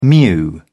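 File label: "mew", British English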